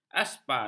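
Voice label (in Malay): "aspal", Malay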